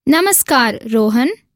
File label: Conversation